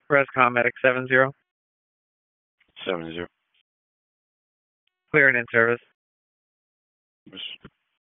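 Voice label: EMS Dispatch; p25; wake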